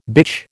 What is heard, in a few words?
"bitch", American